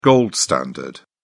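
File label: "gold standard", British English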